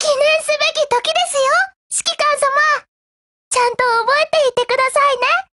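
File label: Japanese, Voice; GF Alarm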